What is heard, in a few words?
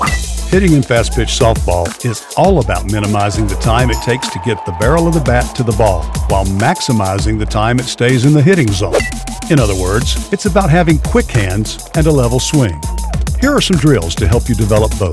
Hitting A Softball Instructional